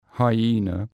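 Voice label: "hyena", English word; animals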